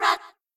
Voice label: "rah rah sis boom ba boom", girls; cheerReaders